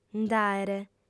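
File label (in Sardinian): "nd'àere", female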